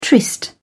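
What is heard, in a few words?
"tryst", British English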